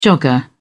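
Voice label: "jogger", British English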